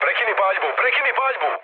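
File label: Serbian player friendly fire mood low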